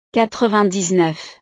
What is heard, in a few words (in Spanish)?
"quatre-vingt-dix-neuf", frances